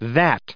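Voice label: "that", English, us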